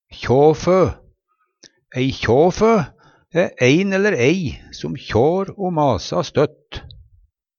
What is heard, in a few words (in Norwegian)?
kjafo, Numedalsmål; Substantiv hokjønn